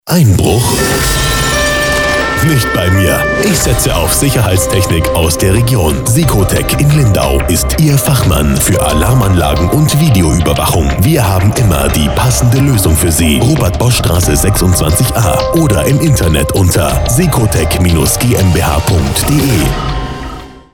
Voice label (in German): Werbespot